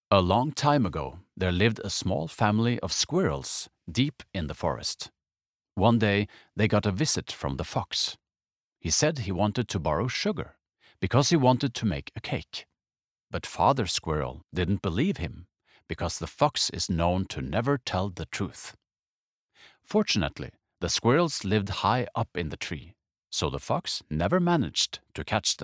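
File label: Norwegian English, norsk mann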